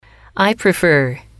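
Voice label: "I prefer", English, female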